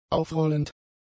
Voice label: "aufrollend", German; adjectives

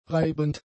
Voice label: "reibend", German; adjectives

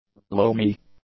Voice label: "loamy", english; adjectives

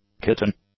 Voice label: "kitten", English; nouns

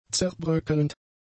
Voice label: "zerbröckelnd", German; adjectives

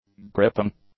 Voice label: "gripping", english; adjectives

